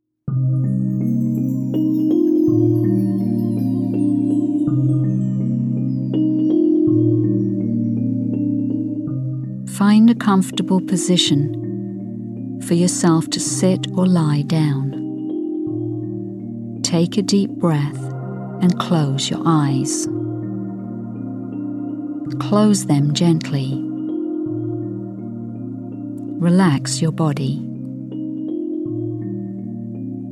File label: Guided Relaxation